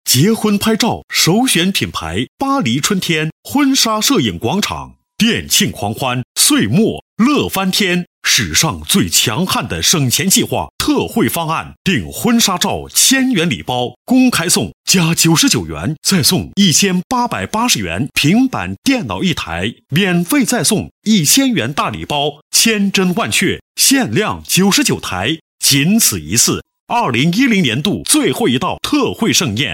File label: boy